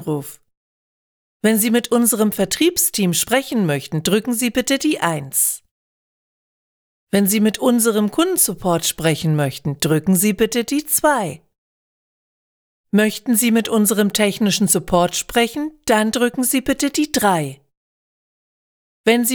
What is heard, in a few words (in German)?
Telefonsysteme mono; Bunny Studio